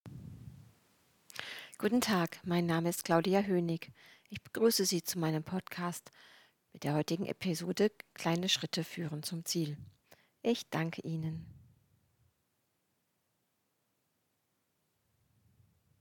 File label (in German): podcast